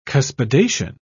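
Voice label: "cuspidation", British English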